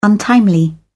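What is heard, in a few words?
"untimely", British English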